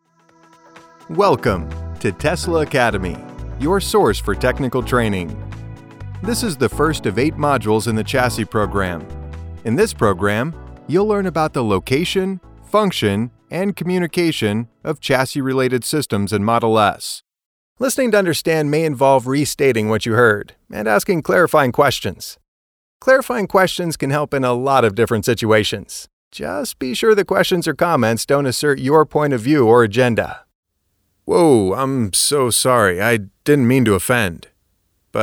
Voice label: elearningprimary, commercial voiceover